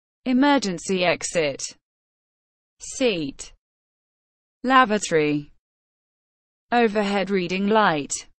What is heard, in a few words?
inside an airplane